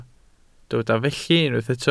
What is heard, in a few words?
"felly", Welsh